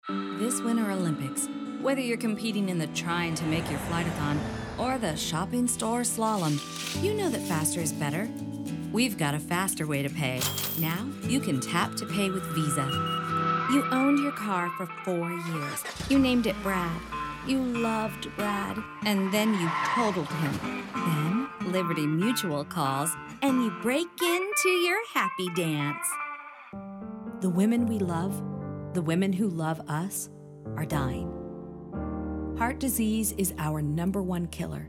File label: Commercial National